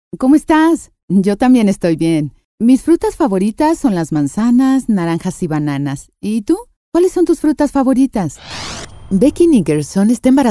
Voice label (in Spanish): Testimonial